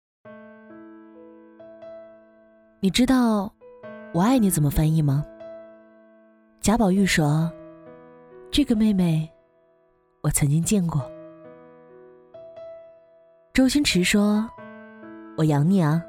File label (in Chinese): 女 走心独白; 只有死别-没有生离